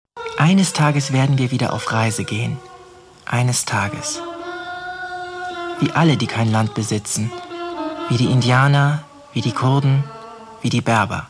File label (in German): de feature, deutsch maenner; sprecher